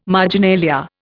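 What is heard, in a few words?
"marginalia", Indian English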